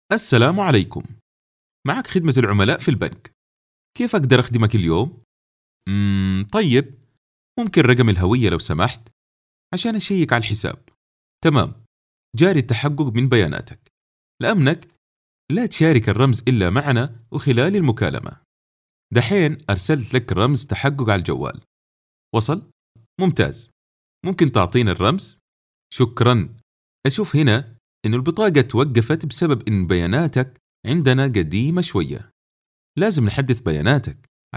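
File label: Arabic General; voice demos; GoLocalise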